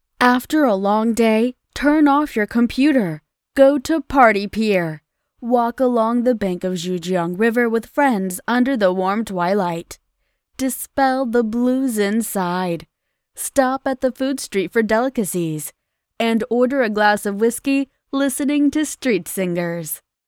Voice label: 英语介绍女声; 琶醍啤酒文化园

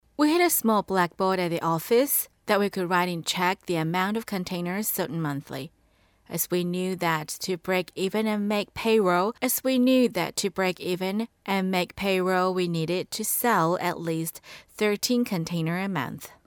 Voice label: 多角色; 麒盛配音文稿

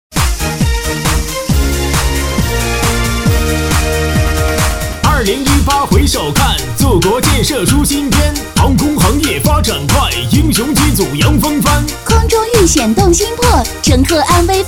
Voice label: 男 戏曲 快板男女合作; 厦门航空公司汇报表演

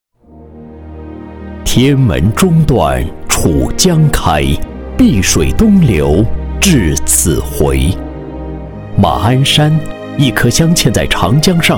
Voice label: 男 城市宣传片 磅礴恢弘

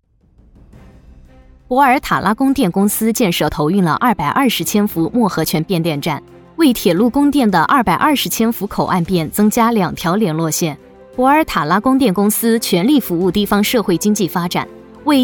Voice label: 女 新闻; 博尔塔拉

